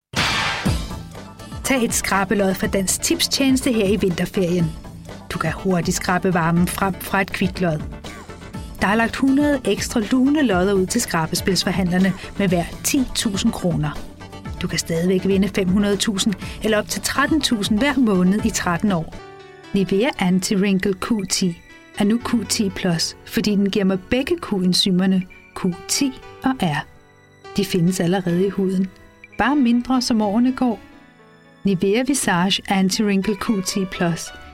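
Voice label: Danish; GoLocalise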